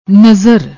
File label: "nazar", Punjabi